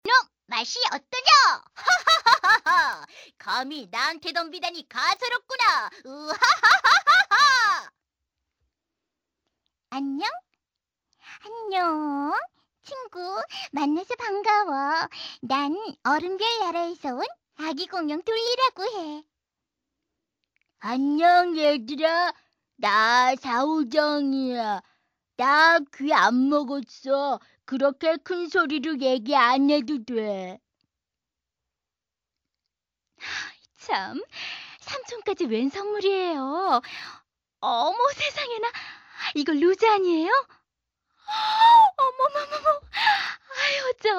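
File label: Korean female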